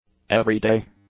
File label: "everyday", English; adjectives